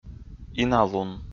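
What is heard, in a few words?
"иналун", Komi, male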